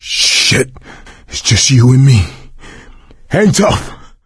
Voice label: double death response quiet, survivor voice; source engine; left 4 dead 2

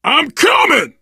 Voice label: to the rescue, survivor voice biker; source engine; left 4 dead 2

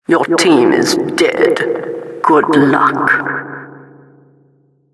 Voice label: announcer last man alive, vo

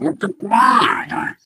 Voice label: impatient

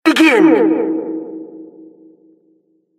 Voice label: announcer round start

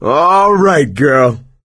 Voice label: nice shot female, player survivor voice; source engine; left 4 dead 2

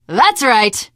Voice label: taunt, teengirl; survivor voice

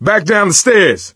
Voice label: misc directional, coach, survivor voice

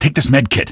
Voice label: health, male; Source Engine; video game; npc

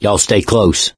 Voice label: stay together, mechanic; source engine; left 4 dead 2

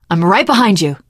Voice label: im with you, teengirl; source engine; left 4 dead 2; survivor

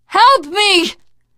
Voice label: help, teengirl; voice; source engine; left 4 dead 2; survivor

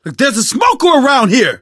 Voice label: heard smoker, survivor voice

